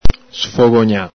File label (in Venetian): "sfogogna", Veneto